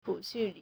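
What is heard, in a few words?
"chǔxù", Chinese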